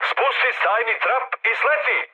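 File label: Serbian bailout mood high, speech; War Thunder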